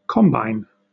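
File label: "combine", British English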